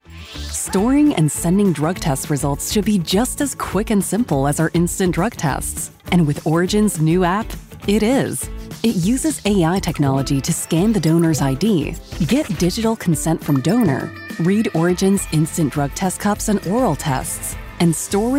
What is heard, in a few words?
Explainer, femalevoices